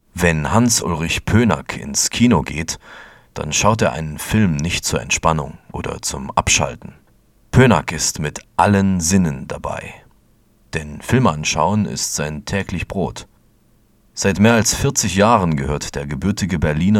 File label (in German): Doku; Portrait über Filmkritiker Hans-Ulrich Pönack; Demo Dokumentation 1